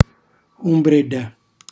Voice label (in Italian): "umbrella", calabrese; ombrello; cucinacalabrese; glossario